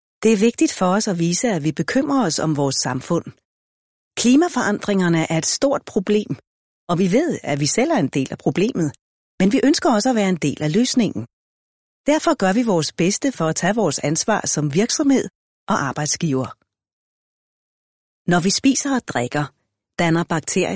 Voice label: Danish F Commercial; voice over

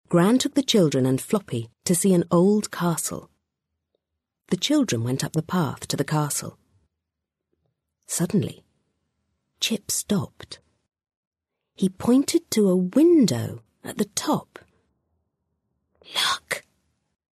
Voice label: English, F, Character

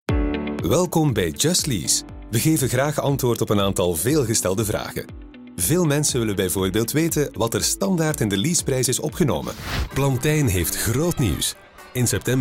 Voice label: Flemish M Corporate; voice over

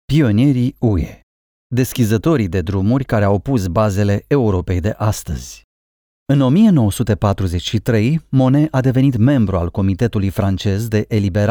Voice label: Romanian M Elearning

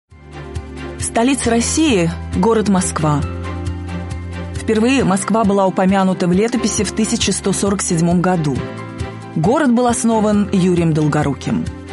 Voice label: Russian F Showreel

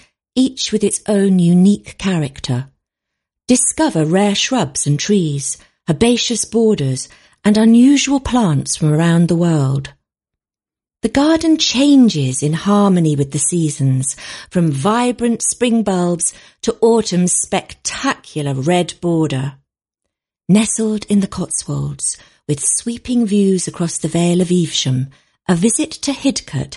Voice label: English, F, Commercial; advertising voice over

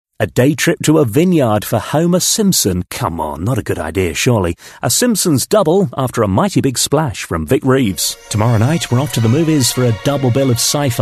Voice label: English UK M continuity

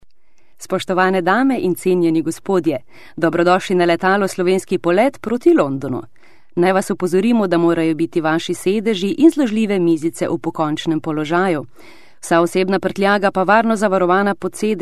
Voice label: Slovenian F Airlines

